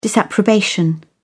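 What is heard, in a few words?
"disapprobation", British English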